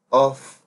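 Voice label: "off", Nigerian Pidgin, male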